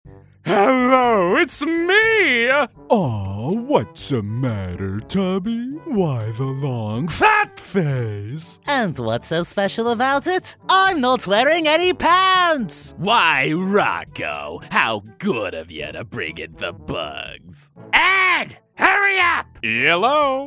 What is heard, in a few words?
imitation reel